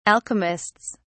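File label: "alchemists", British English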